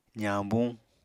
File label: "zañbuñ", Apatani